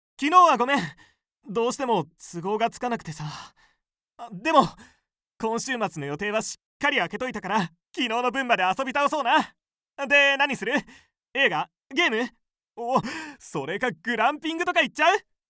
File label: high school student